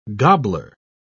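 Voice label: "gobbler", British English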